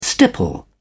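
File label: "stipple", English, British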